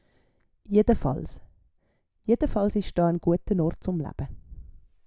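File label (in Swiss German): "jedefals", Swiss German; Wortschatz Kapitel 8; Kapitel 8 Zusatzwortschatz 5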